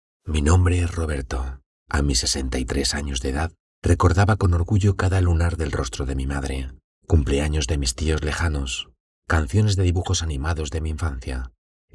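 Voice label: audiobooks, Español, Hombre, Audiolibros / Narración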